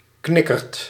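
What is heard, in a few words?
"knikkert", Dutch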